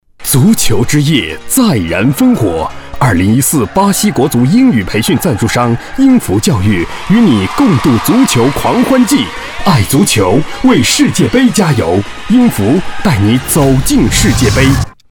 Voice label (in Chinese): 稳重男声